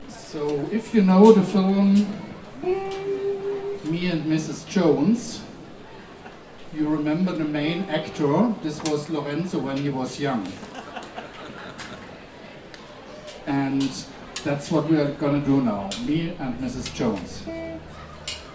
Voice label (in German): Ansage